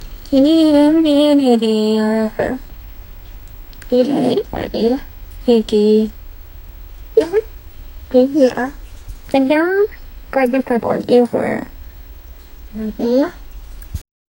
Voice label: woman talking gibberish, human voices

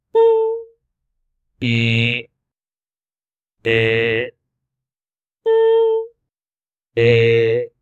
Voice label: a round slightly nasal ma, vowel

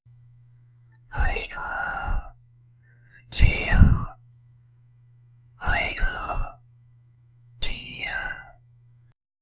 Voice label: man saying thank you, grateful

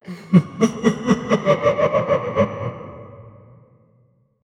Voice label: charismatic evil pirate, pirate

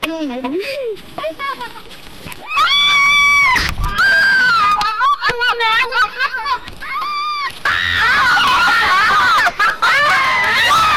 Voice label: a 22 year old man playing, brothers

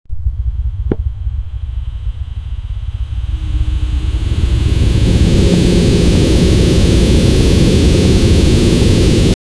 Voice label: sci fi cinema captain; space ship; sci fi cinema captain of

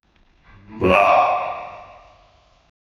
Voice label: a man says ohhh; human emotions

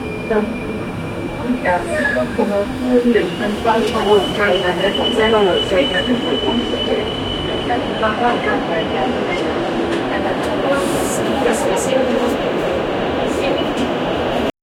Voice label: people talking on subway; busy

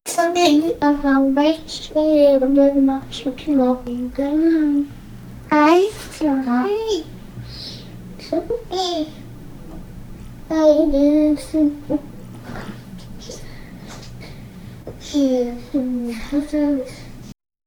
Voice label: fading conversation, family

